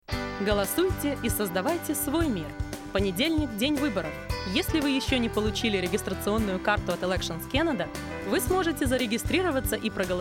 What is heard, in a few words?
election_russian, radio ad